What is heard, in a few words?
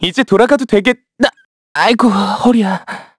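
Vox Victory, Korean; Evan; kingsraid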